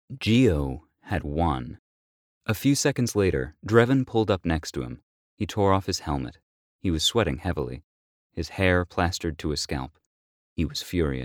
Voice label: Fiction Russian Italian British